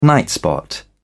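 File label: "nightspot", English, British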